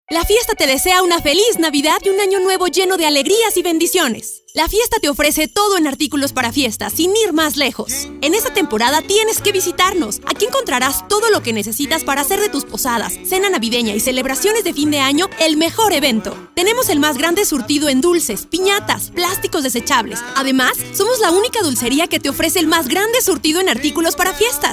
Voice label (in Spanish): perifoneo; locutora; dulceria la fiesta